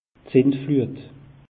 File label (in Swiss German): "sinfluut", alsace; meteo